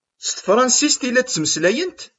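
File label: "S tefṛansist i ad ttmeslayen?", Kabyle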